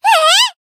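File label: Voice damaged, Japanese; thillelille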